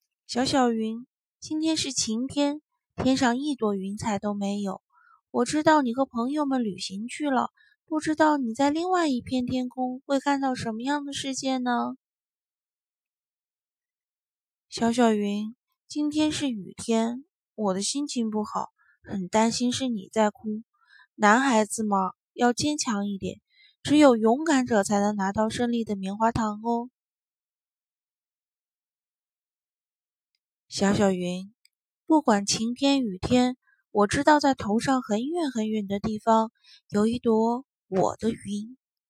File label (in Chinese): 动画配音; 阿狸和小小云